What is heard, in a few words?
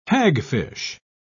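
"hagfish", British English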